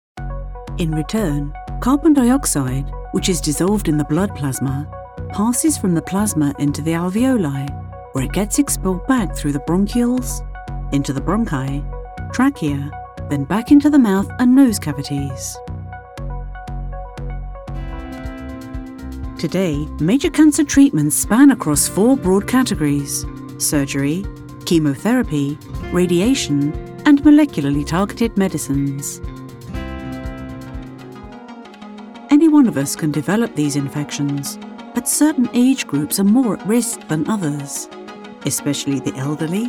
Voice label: Lovely Voice Medical